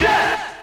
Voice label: "yeah" chant, voice